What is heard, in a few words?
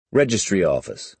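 "registry office", American English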